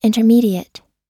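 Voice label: "intermediate", English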